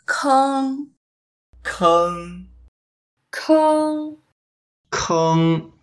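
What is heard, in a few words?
"keng1", Mandarin Chinese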